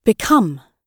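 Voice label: "become", British English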